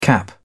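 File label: "cap", British English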